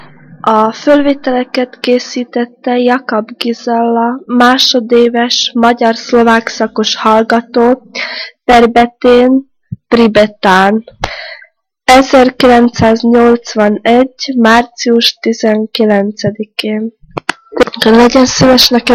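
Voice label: dialect collection; nyelvjaras gyujtesek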